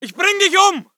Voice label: voice ger infantry; Red Orchestra 41-45

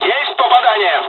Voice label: japanese first wave, dialogs russian; War Thunder

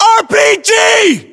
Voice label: US threat rpg, battlechatter; localized english

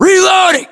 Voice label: inform reloading generic, US; localized english; battlechatter

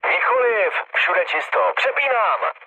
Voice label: chat, speech Czech; War Thunder

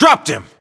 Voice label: inform killfirm infantry, US

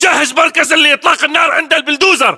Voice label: order cover bulldozer, battle chatter, english

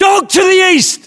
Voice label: UK threat dog east, battlechatter

